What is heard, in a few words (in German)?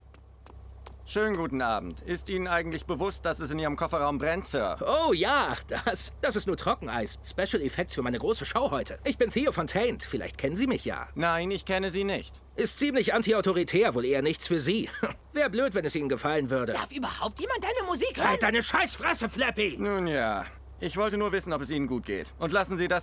Synchron Comic